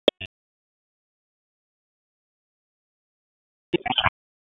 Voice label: Raleigh Police Northeast District Tac, p25